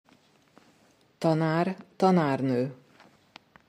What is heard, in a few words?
"tanár, tanárnő", hungarian; hungarian school word flashcards